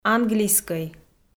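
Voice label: "английскӧй", Komi-Zyrian, female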